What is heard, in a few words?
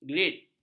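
"grid", Malay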